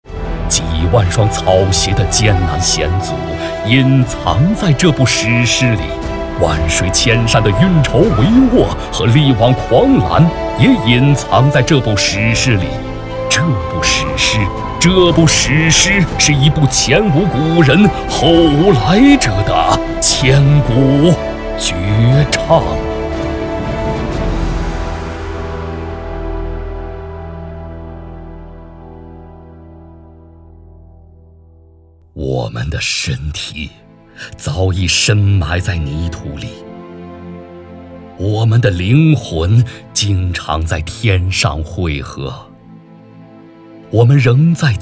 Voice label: 男 宣传片 北伐战争演绎配音

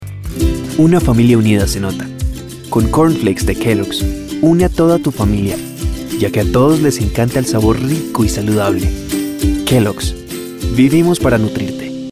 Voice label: 外籍西语男 宣传片

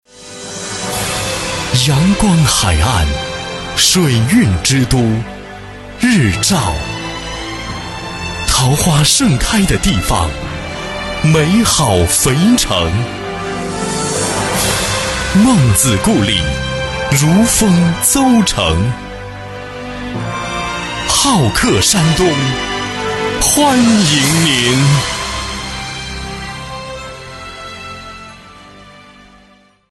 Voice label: 央视广告; 好客山东欢迎您

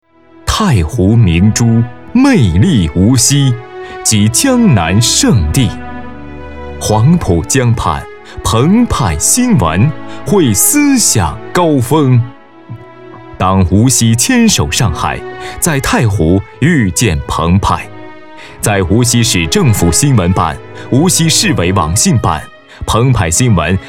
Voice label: 男 宣传片 高级大气 介绍片; 太湖澎湃

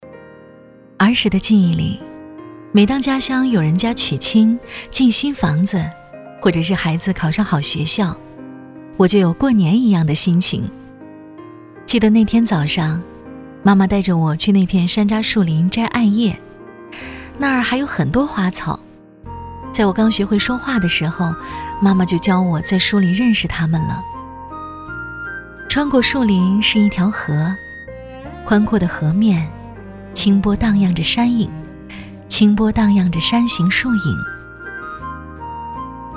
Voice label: 女, 微电影 自然说话

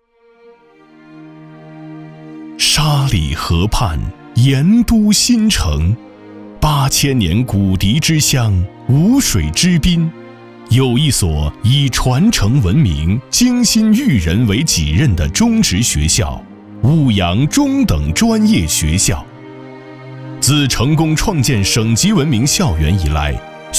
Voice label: 男, 学校专题, 沉稳大气

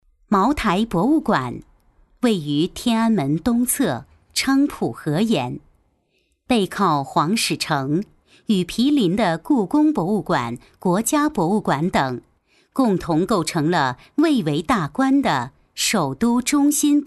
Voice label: 女 专题

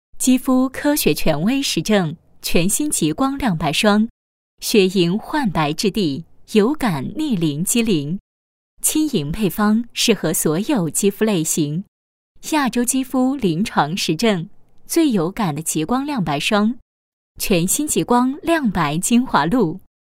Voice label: 女 广告; 亮白精华露

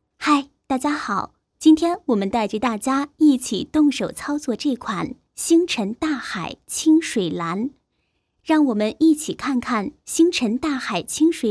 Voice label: 女 专题 产品介绍 干音

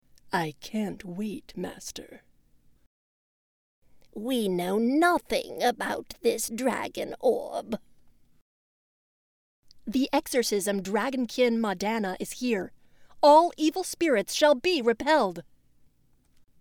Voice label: 外籍英女 人物扮演 多角色游戏配音

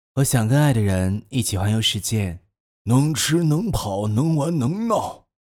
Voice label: 男孩 老男人 2个角色

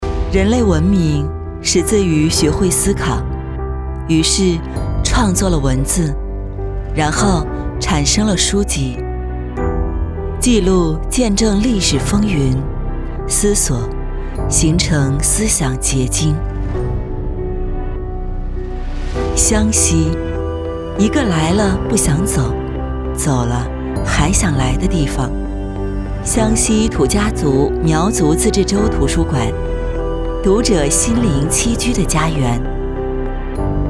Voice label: 女 专题 湘西

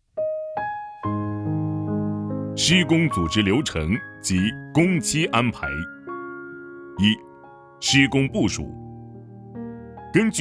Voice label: 男 工程宣传片

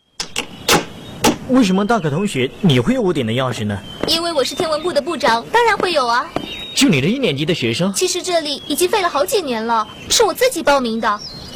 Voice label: 动画配音片段, 国语女声配音

